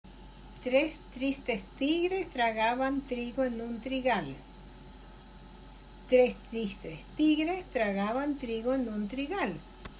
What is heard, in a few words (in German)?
spanisch zungenbrecher